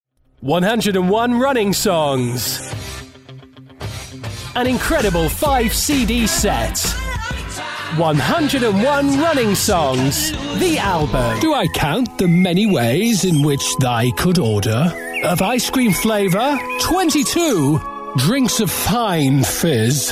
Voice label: English British commercial